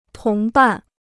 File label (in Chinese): "同伴", Chinese